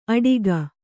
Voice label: "ಅಟಿಗ", Kannada

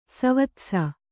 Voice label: "ಸವತ್ಸ", Kannada

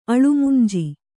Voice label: "ಅಳುಮುಂಜಿ", Kannada

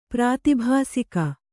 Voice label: "ಪ್ರಾತಿಭಾಸಿಕ", Kannada

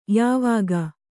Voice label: "ಯಾವಾಕೆ", Kannada

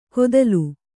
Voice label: "ಕೊದಲು", Kannada

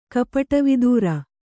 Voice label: "ಕಪಟವಿದೂರ", Kannada

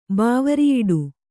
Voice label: "ಬಾವರಿಯಿಡು", kannada

